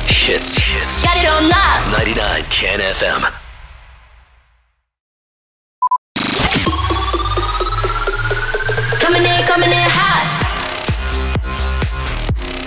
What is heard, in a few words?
SWEEPER MUSIC REJOIN